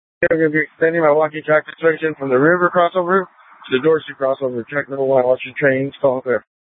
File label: Light Rail Ops, scanner